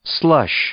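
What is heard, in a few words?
"slush", English, male; noun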